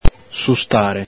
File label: "sustare", Veneto